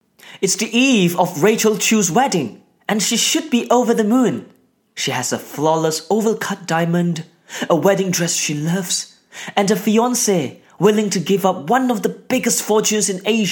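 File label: Malay, Accented English; GoLocalise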